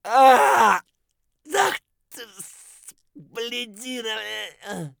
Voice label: scav agony; Escape from Tarkov; scav2